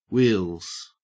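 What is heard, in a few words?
"wheels" English, Australian; pronunciations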